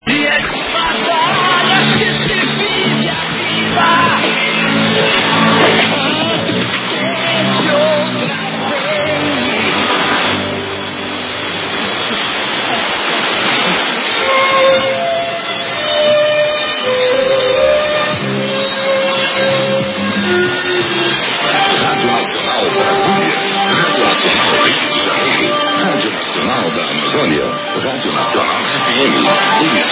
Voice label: 11780kHz; R. Nacional da Amazonia